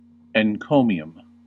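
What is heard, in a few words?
"encomium", US English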